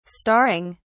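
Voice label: "starring", British English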